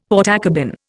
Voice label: "Portakabin", Indian; Dictionary